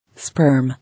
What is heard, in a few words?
"Sperm", English